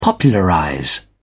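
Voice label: "popularize", British English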